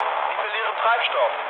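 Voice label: German tank damaged mood low, speech; War Thunder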